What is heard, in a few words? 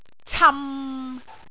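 "cam6", Cantonese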